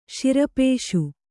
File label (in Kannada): "ಶಿರಪೇಷು", Kannada